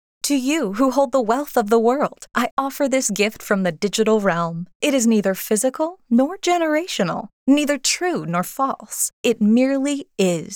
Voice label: male; 50+